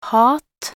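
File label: "hat", Norwegian Bokmål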